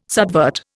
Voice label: "subvert", Indian

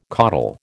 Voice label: "coddle", American

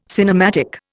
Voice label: "cinematic", Indian

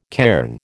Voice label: "cairn", American

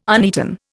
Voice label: "uneaten", Indian

